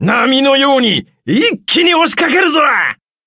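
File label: battle, Japanese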